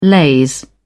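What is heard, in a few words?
"laze", English, British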